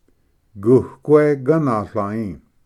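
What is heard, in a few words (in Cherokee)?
"guque ganatlai", Cherokee word, male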